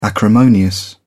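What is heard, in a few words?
"acrimonious", British English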